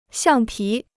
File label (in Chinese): "橡皮", Chinese